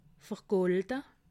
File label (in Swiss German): "vergolde", alsace